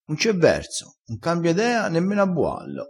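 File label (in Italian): "nemmeno a buallo", dialettale crespinese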